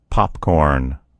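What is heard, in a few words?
"popcorn", American English; gcompris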